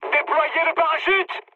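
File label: French bailout mood high; War Thunder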